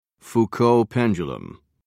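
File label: "foucault pendulum", English, British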